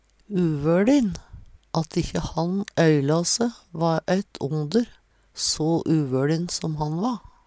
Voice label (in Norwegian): uvolin, Numedalsmål; At ikkje han øyla se, va ett onder, so uvøLin som han va.